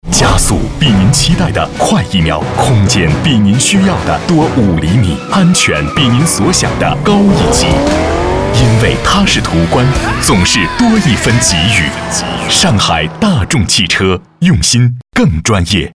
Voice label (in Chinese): 男 广告, 国语男声配音 大气浑厚; 上海大众